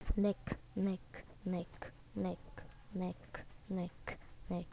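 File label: "neck", spoken word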